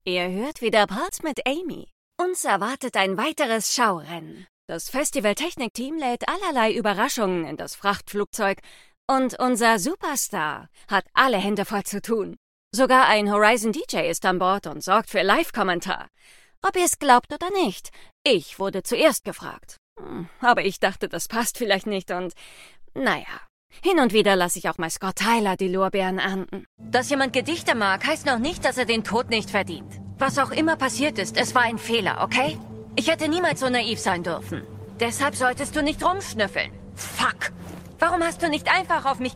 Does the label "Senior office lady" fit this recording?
No